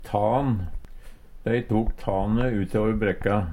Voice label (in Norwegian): tan, Numedalsmål; Substantiv inkjekjønn; Dei tok tane utover brekka.